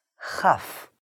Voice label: "Chaf", Hebrew; hebrew introduction